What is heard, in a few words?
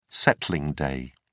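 "settling day", British English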